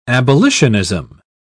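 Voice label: "abolitionism", British English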